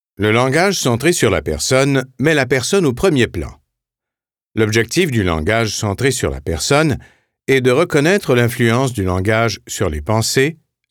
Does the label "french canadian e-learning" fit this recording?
Yes